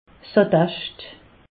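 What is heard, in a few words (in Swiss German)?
"attescht", Alsace, Haut Rhin; medecine; certificat médical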